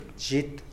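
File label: "zhit", Tlacochahuaya Zapotec; cat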